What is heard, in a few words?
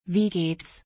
how are you in german